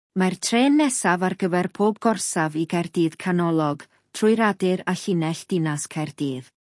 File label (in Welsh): announcement cardiff via city welsh; operator